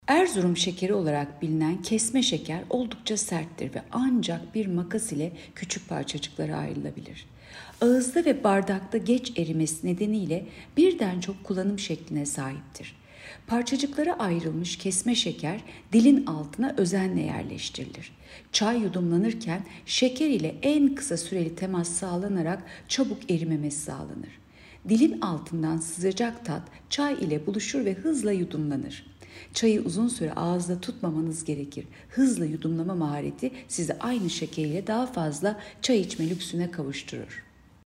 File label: turkce